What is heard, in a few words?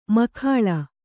"ಮೇಖಳೆ", Kannada